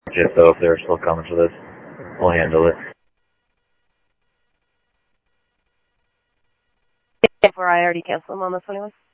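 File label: Mesa Police Department, scanner audio trunk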